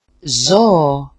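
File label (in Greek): "zoo", Greek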